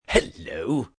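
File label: "Hello" British male; Greetings; VoiceBox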